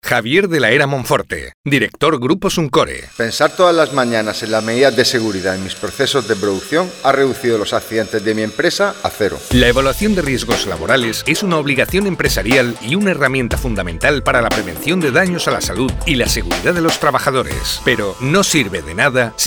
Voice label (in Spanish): cuña